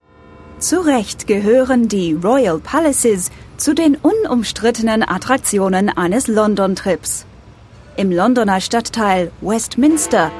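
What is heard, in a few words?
German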